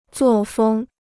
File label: "作风", Chinese